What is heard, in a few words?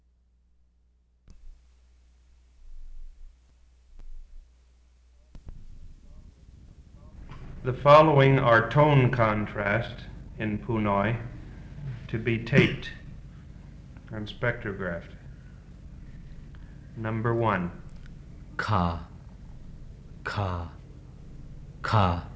Phunoi